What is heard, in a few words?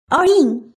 show hand, woman; goldenflower